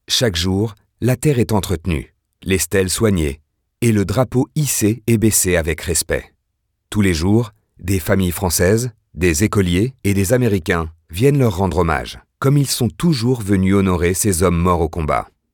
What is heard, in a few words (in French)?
Audioguide